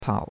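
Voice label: "pao", Chinese, third tone; Cours-de-Chinois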